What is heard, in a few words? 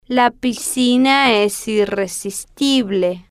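la Piscina Es Irresistible, Spanish, female, slow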